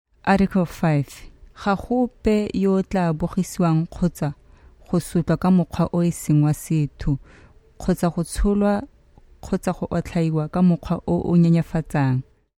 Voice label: Tsewana